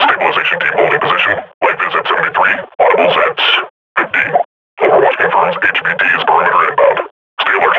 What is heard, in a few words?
idle, grunt; Half Life Model Pack